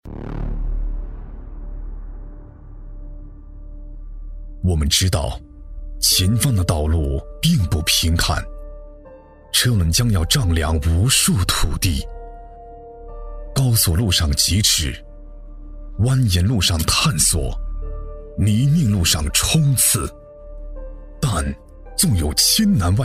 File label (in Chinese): 男 企业专题 低沉大气; 大气磁性; 大气浑厚